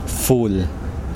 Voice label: "shell", Kosraean